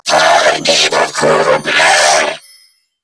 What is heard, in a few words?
Player Spotted Grineer Female; en; New War; Narmer Grineer